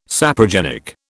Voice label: "saprogenic", British